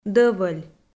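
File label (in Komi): "дӧвӧль", Komi, female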